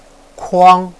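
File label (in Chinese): "kuang", Chinese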